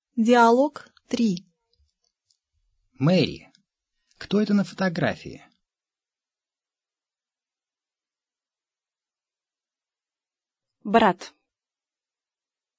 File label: dialog, russian